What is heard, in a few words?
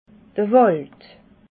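"wald", Alsatian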